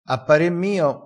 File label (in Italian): "a pare mio", Crespinese